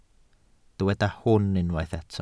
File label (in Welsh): "hwn" Welsh